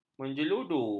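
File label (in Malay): "menjeludu", Malay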